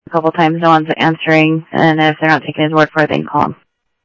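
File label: Phoenix police department, scanner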